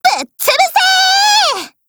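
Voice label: ATTACK, Japanese; MicroUZI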